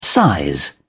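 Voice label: "size", British English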